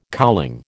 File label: "cowling", American